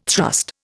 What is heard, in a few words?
"thrust", Indian